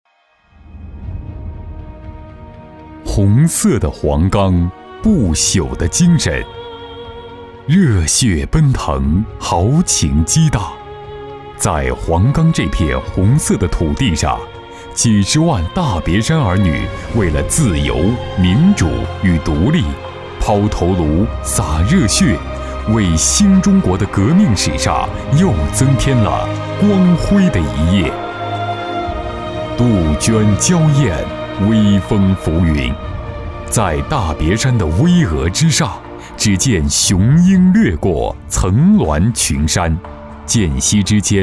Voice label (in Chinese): 男 党政事迹解说